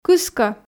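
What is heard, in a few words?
"кыскӧ", Komi, female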